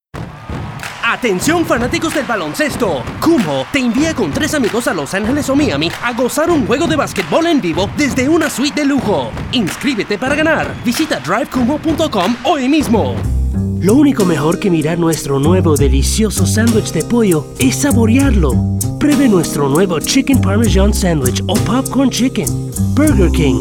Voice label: languages spanish commercial